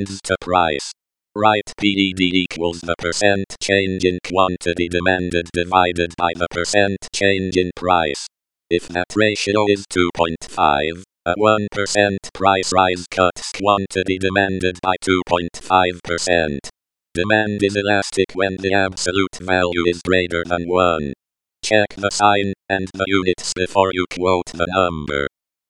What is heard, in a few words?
elasticity practice, lecture